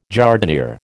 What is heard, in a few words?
"jardinière", American